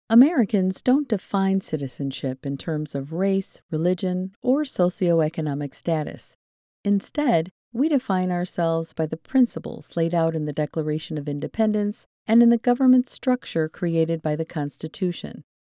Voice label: E-LEARNING History Text